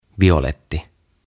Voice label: "Violetti" "Purple"; OTAVA KONSERNI

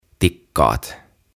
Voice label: "Tikkaat" Ladder

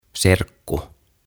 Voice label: "Serkku" Cousin

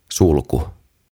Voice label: "Sulku", "Lock"

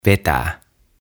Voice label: "Vetää" "Pull"

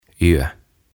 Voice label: "Yö", "Night"

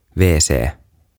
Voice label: "Wc", "Toilet"; OTAVA KONSERNI

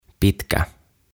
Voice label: "Pitkä", "Long"

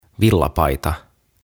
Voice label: "Villapaita", "Jumper"; OTAVA KONSERNI

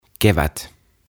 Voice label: "Kevät", "Spring"

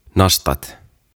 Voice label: "Nastat" "Pins"

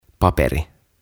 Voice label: "Paperi", "Paper"

